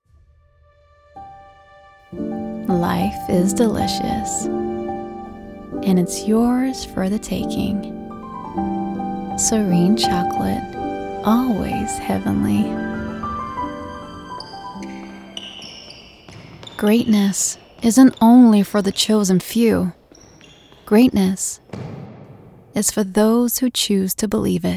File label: US Commercial Reel